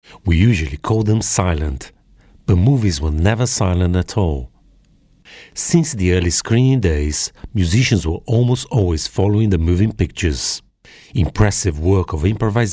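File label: Brazilian Portuguese, accented English; GoLocalise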